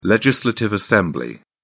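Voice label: "legislative assembly", British English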